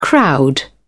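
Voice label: "crowd", British English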